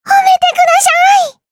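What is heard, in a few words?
Vox Victory Japanese; Taily; kings raid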